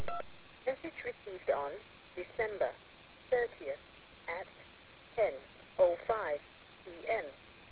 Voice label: voicemail